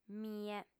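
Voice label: "mie", female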